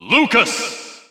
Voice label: narration